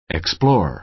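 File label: "explore", American English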